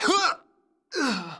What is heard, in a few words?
Male; baldurs1